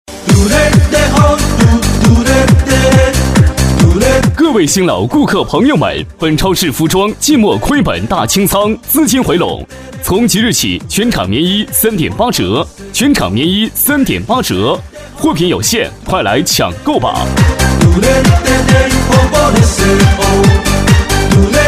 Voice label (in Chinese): 促销 服装清仓男 激情浑厚